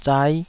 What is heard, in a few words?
"zai5", Taiwanese